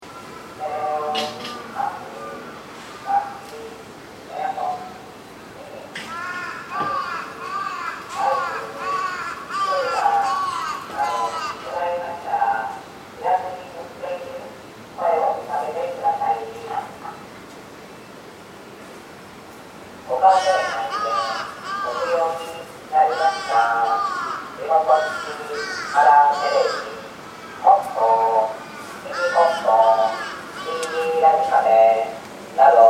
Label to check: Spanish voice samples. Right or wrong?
Wrong